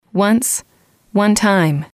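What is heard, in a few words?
"once one time", English, female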